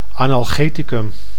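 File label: "analgeticum", Dutch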